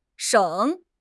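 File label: "sheng3", Chinese; HSK4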